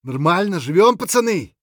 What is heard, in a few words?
scav mutter, voice; Escape from Tarkov; scav6